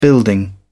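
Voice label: "building", British English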